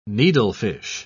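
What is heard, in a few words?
"needlefish", British English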